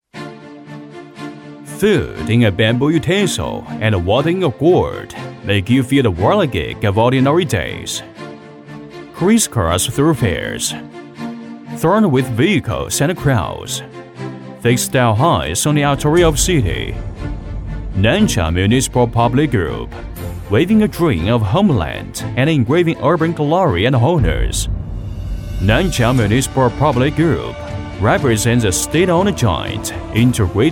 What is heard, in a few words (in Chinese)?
男英 多媒体 产品演示; 外语; 英语; APP介绍D1样音